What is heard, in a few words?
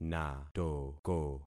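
"natoko", concatenated syllables; Affixing experiment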